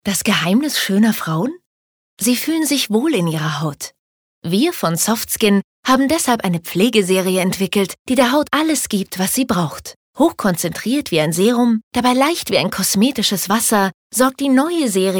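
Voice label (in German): advert, Sanft; Softskin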